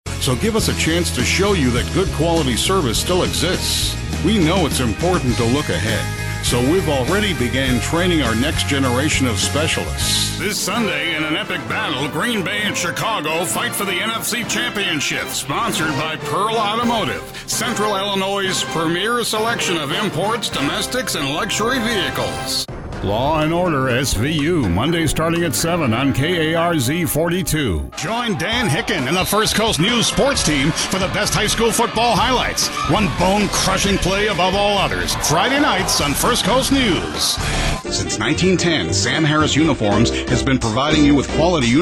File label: Commercial, commercial voiceover